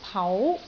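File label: "pau2", Cantonese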